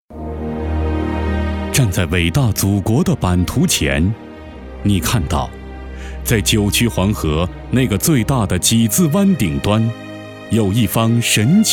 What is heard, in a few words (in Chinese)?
男 专题 沉稳大气; 扶贫